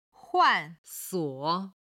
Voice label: "huansuo", Chinese